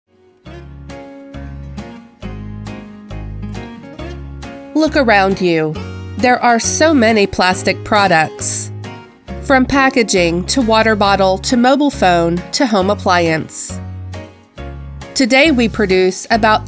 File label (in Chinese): 外籍女 专题 废料回收